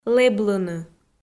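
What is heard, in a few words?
"лэблыны", Komi, female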